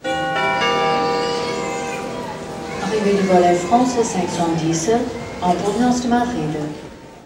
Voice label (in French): Airport Annonce Arrive; French